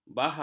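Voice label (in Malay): "bahak", Malay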